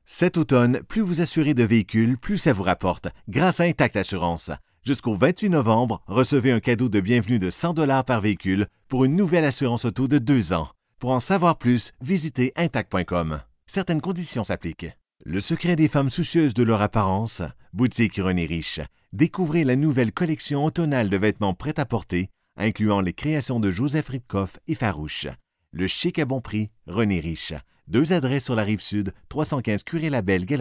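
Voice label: French Canada, male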